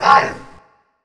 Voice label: pain

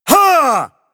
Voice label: pain; a hat in time

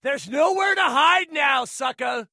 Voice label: battlecry; freak fortress 2; borderlands 2; jack; sniper

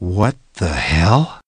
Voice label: jeers; ash

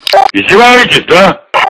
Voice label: hurt; tf; polkovnik

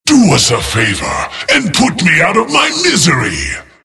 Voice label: jeers; tf; skeleton king

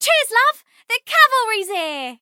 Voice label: battlecry; tf; tracer

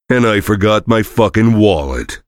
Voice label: heavy jeers; tf; duke

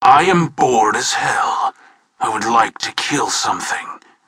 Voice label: jeers; tf

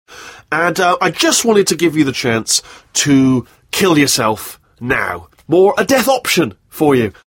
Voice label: rage; Wheatley

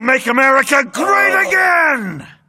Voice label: battlecry

